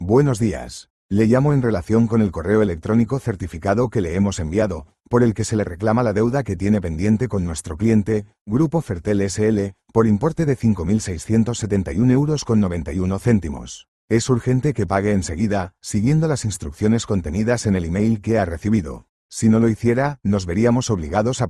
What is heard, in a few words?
audio call